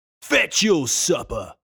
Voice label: english warrior dialogue vocal fetch your supper male 30s voice